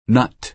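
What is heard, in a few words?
"nut", American English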